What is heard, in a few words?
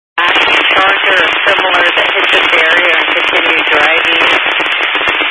Highway Patrol, scanner; DPS